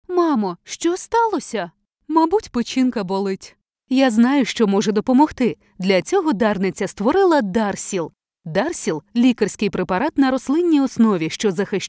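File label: Ukrainian female voice over, character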